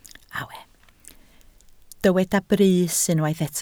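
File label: "brys" Welsh, spoken word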